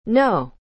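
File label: "know", English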